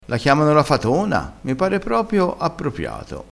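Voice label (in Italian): "appropiato", dialettale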